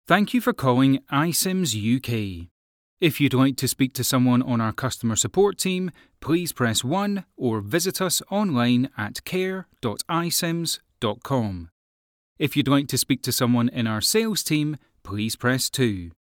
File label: Voice Response UK, ivr read; iCIMS